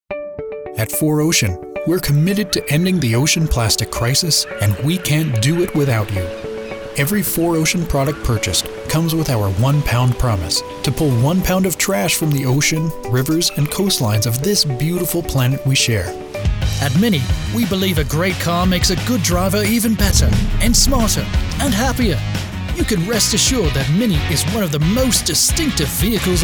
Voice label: VO Commercial